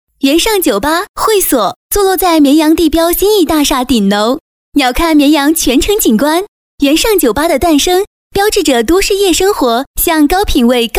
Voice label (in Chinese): 女 广告; 国语女声配音; 专题广告; 酒吧